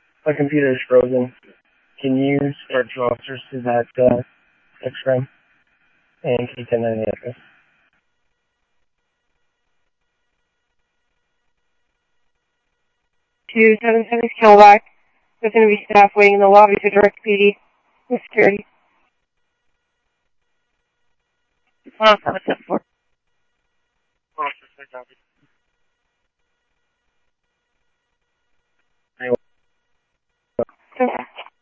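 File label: police radio dispatch, ScottsdalePolice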